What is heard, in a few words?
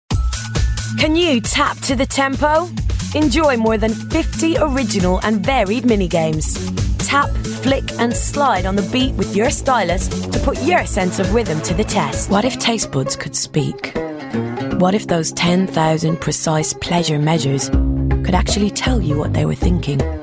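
Commercial Showreel, female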